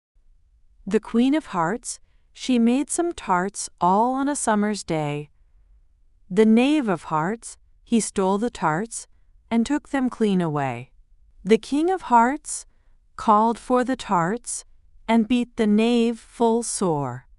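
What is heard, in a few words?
female US, classic rhymes; Queen of Hearts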